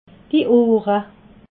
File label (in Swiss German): "ohre", alsace